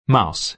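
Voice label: "mouse", American English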